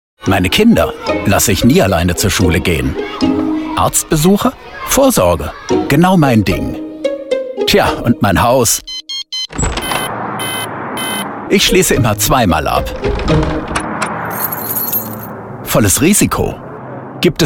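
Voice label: German, commercial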